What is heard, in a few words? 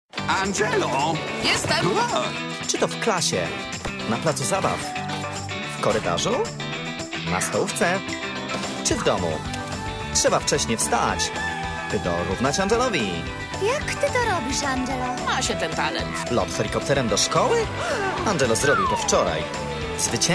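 Polish, male, cartoon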